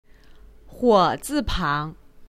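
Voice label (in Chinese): "火子", Chinese; 小学语文教学课件; 易语言; 中小学教学课件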